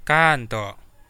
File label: maybe, kadazan